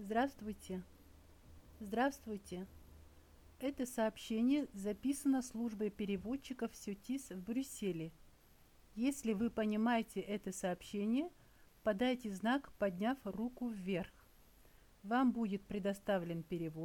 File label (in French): Russe